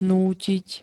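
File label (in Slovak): "nútiť", Slovak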